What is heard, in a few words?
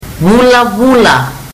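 "vulavula", Fijian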